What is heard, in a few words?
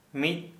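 one, Santali, male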